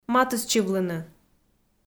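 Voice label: "матыстчывлыны", Komi, female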